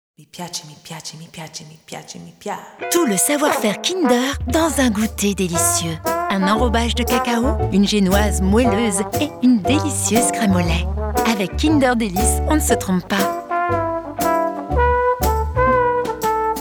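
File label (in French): maman tonique, comédienne voix off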